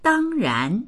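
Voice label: "dang1ran2", Mandarin Chinese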